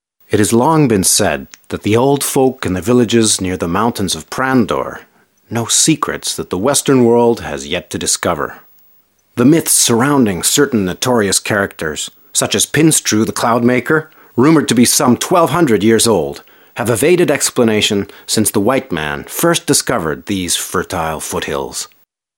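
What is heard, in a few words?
male English documentary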